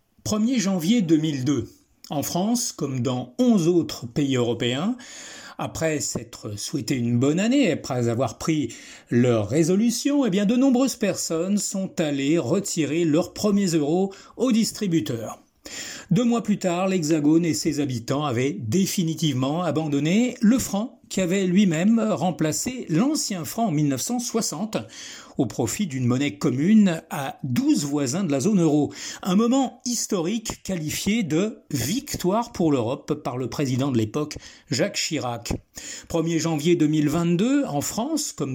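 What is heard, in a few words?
French, Narrative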